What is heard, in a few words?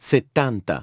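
"seventy", English; iOS 4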